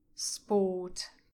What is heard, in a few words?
"sport", english pronunciation